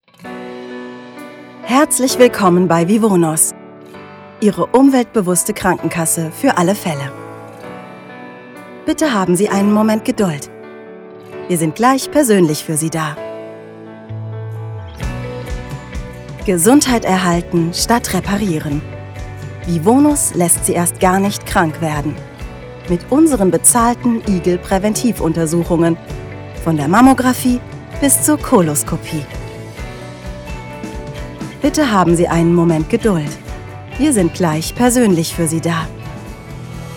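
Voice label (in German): telefonansage warteschleife, Sprecherin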